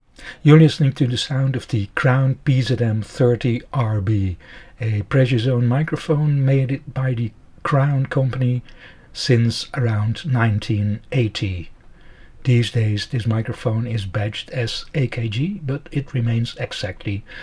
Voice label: Crown PZM30RB sound UK